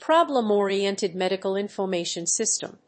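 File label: "problem-oriented medical infomation system", English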